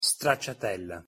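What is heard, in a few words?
"stracciatella", Italian pronunciation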